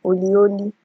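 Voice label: "holy holy", Nigerian Pidgin, female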